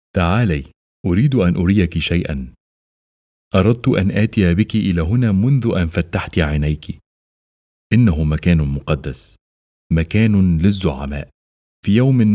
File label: arabic voice character